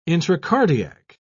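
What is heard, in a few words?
"intracardiac", British English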